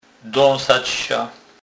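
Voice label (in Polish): "dasac", Kurpie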